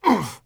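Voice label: Twi male damage; XBOX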